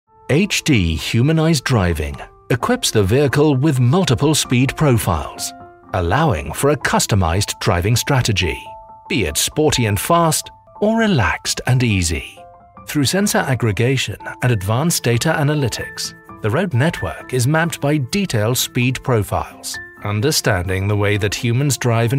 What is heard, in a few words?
Cool Measured